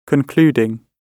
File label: concluding, gb